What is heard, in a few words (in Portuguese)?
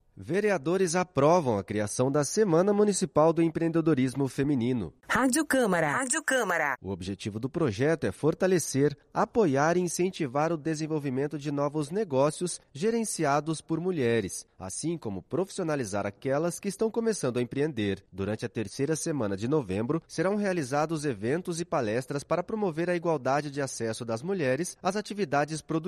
spot radio; semana empreendorismo feminino